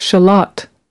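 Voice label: "shale", US English word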